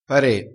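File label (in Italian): "pare", dialettale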